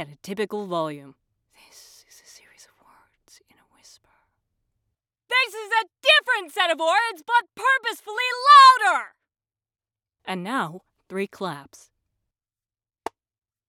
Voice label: Raw Home Studio Sample U87; Korean American voice actress based in Minneapolis